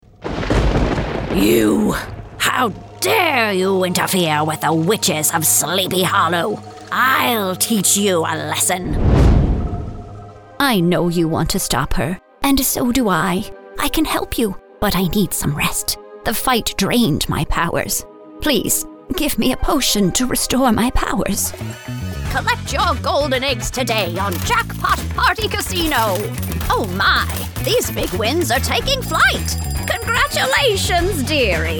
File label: OLD LADY